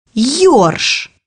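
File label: "ruffe", Russian; bukvar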